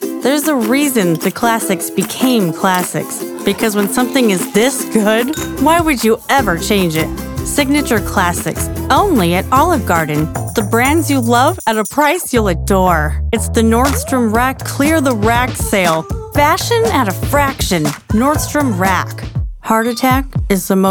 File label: Commercial; Commercial Demo